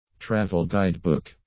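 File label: "travel guide book", English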